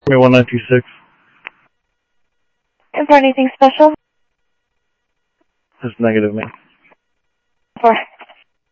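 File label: Sheriff East County, scanner audio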